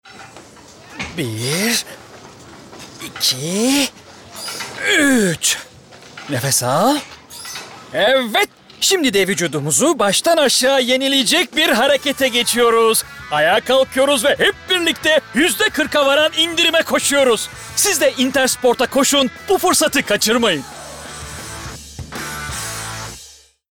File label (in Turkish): Reklam Yan Karakter